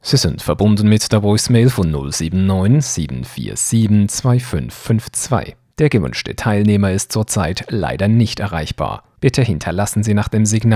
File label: voicemail